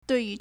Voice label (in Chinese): "dui4yu2", Chinese